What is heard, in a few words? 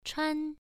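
"chuan" Mandarin, first tone, pronunciation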